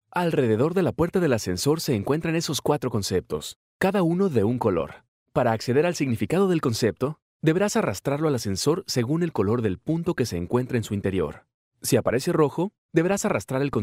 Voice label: locutor mexicano mexican voiceover